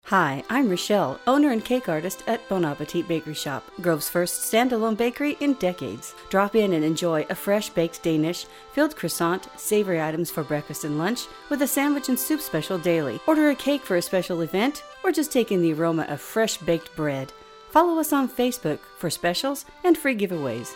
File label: Radio Spot; Bon Appetit Bakery; custom audio production